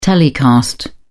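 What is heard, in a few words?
"telecast", British English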